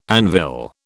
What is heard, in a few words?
"anvil", British